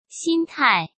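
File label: "心态", Mandarin Chinese; hsk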